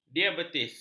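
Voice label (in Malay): "diabetes", Malay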